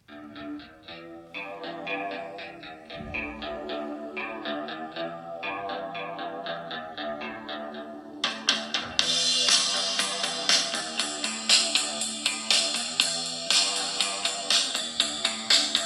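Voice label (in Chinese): 广告动感; 魅族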